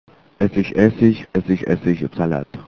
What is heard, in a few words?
Essig essig, German tongue twister; essich essig